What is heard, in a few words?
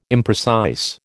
"imprecise", American